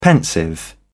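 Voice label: "pensive", English, British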